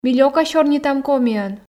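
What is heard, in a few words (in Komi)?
ми лёка сёрнитам комиӧн, Komi, female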